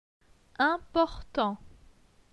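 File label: "Important", French; phonetique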